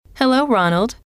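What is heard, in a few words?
"hello", female; trainer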